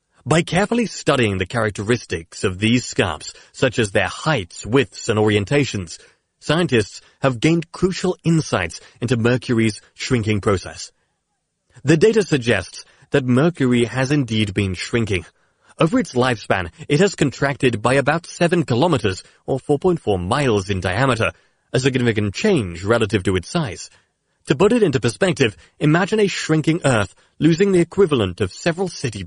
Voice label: 英式男声

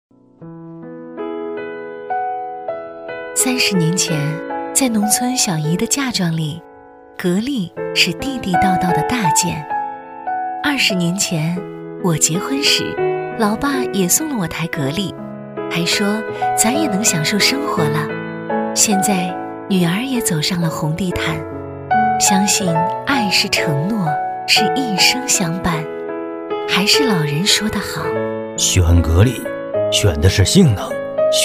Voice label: 女 品牌广告; 格力

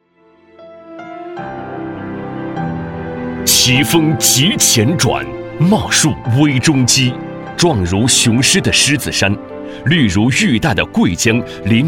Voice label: 男 项目解说 叙述; 高速公路

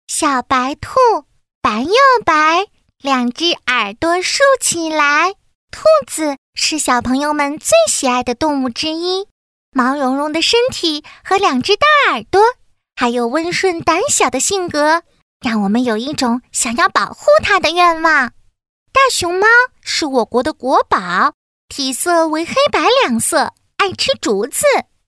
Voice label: 女 童声 5-6岁女童

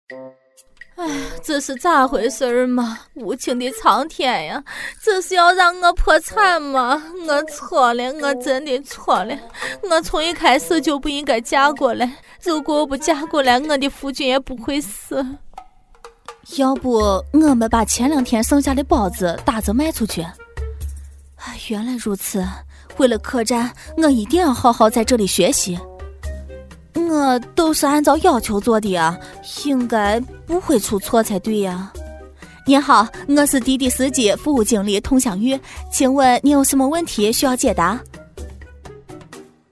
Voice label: 女 方言陕西话; 《模仿佟湘玉》

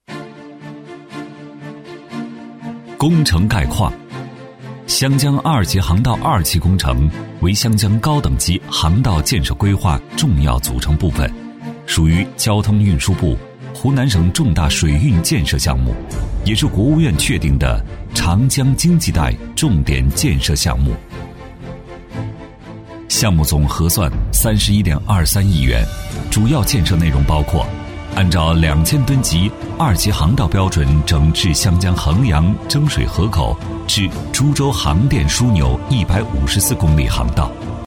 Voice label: 男 专题片; 磁性舒缓; 沉稳大气

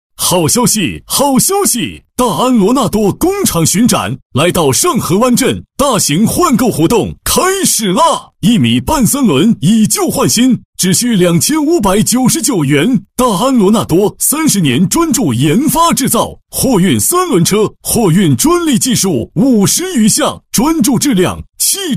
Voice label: 激情促销男; 小刀电动车工厂巡展

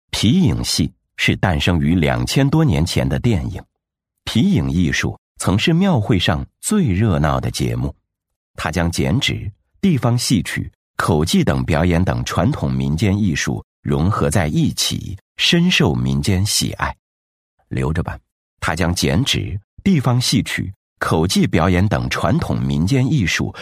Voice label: 男 纪录片 历史感讲解; 皮影戏文案; 男83-纪录片《皮影戏文案》-历史感讲解

